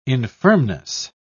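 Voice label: "infirmness", British English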